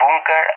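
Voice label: "bunker", English; Target; DCS; Allied Flight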